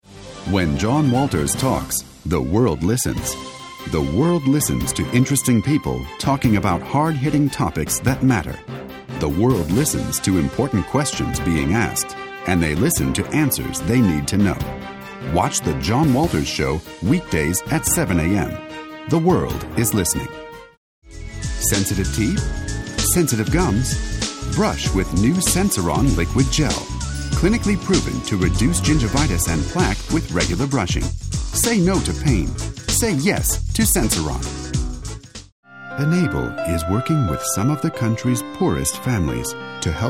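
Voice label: American, TV commercial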